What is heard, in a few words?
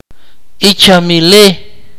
"husband", Akateko